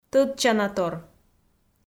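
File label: "тӧдчанатор", Komi, female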